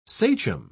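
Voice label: "sachem", English, British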